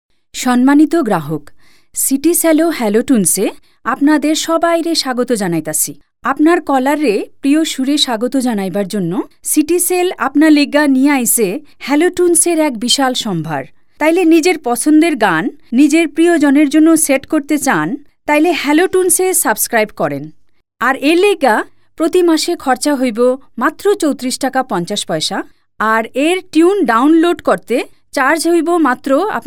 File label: Bengali Female bangladeshi